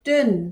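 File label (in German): "dünn", German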